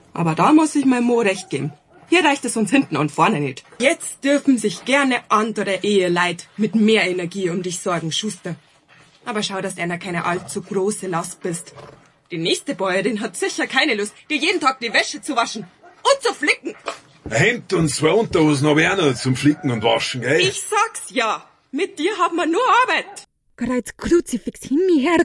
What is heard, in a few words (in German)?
Audiobook Bavarian German